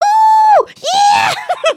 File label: volunteer, emotes